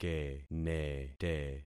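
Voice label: "kenete", concatenated syllables; Affixing experiment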